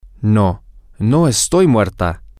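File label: Spanish, male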